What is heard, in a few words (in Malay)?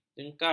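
"jengkau", Malay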